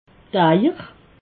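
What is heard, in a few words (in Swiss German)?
"eier", Alsatian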